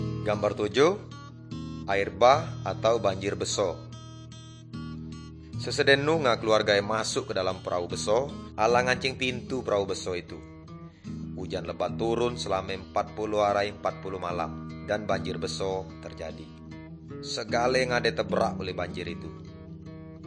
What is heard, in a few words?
Good News Melayu Tanjung Raja; Malay Christian Resources and Media